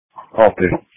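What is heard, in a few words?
Police Patrol Dispatch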